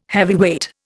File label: "heavyweight", Indian